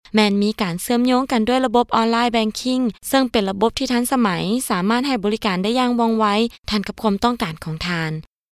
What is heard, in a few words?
Female Voice